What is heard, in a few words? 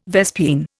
"vespine", Indian